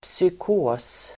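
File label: "psykos", Swedish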